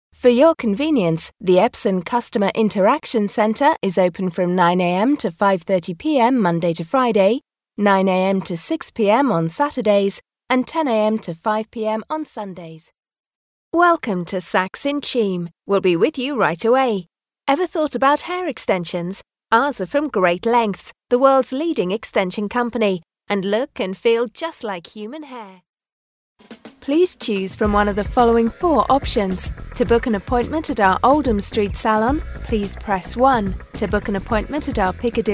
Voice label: on hold, Voiceover